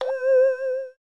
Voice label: worried; Samsung Galaxy S10; AvatarEmojiSticker Beyond